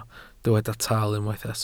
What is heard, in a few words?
"tal", welsh